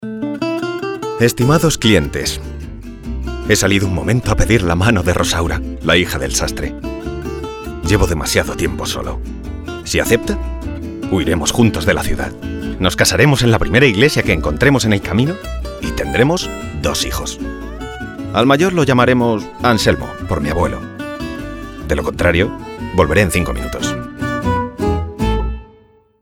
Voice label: spanish castilian ivr

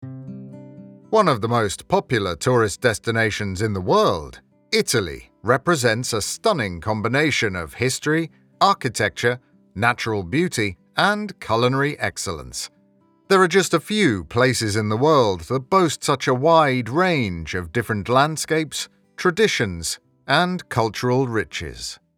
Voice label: english british audio guides

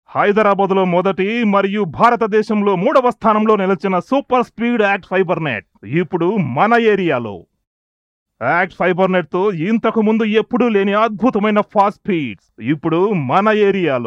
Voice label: Telugu commercial

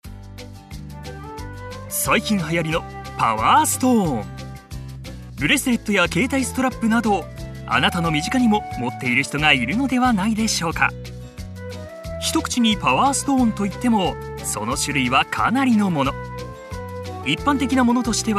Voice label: japanese narration

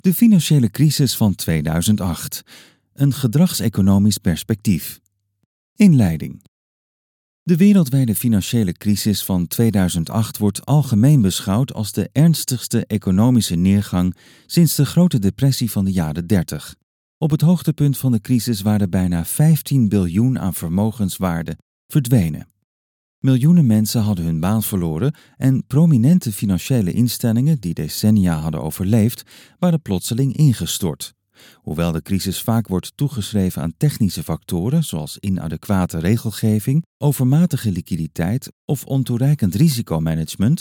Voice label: dutch audiobooks

